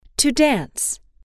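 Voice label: "to dance", English, female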